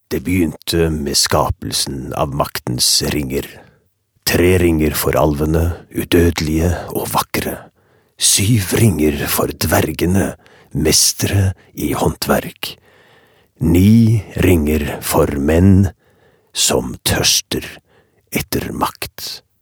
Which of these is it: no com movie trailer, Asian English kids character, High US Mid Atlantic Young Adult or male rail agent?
no com movie trailer